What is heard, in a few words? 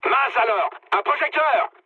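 searchlite, mood medium, French; War Thunder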